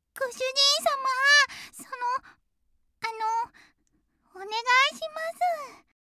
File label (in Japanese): おねだりボイス